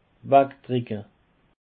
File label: "wegdrucke", Alsace